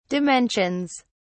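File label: "dimensions", British English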